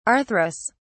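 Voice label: "arthrous", English, British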